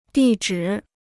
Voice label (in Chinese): "地址", Chinese